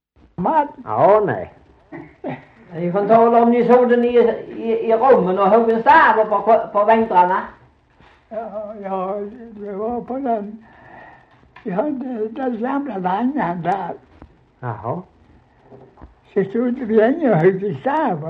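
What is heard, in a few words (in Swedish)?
Slättåkra Halland, dialekter svenska sagor; Folkminnen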